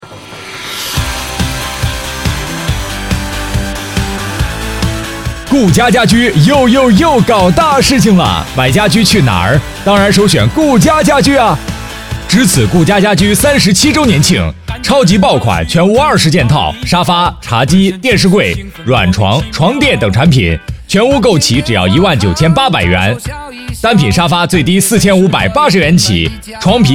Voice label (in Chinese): 配音师男国语, 庄重大气 激情活力, 广告; 顾家家居